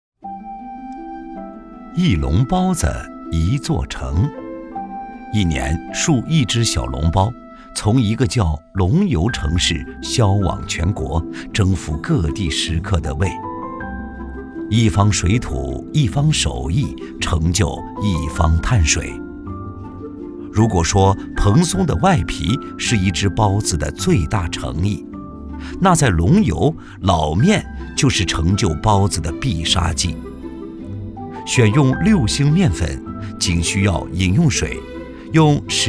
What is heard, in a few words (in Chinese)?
男 舌尖体 小笼包